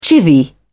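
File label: "chivvy", English, British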